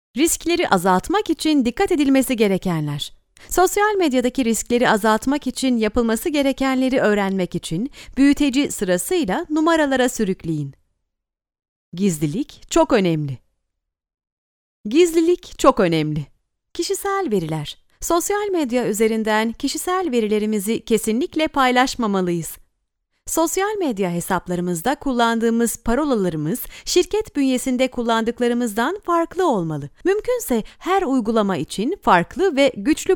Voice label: turkish female e learning